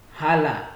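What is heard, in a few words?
"halah", Nigerian Pidgin, male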